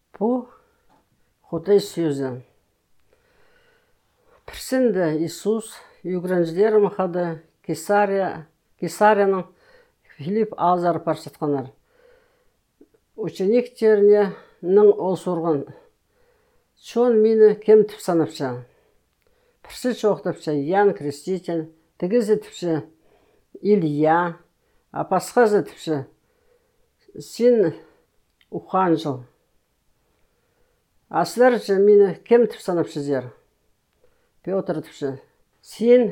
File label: Khakas; Кізілер мині кемге санапча; Who Do People Say I Am